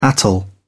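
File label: "atoll", English, uk British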